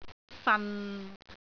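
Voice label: "fan6", Cantonese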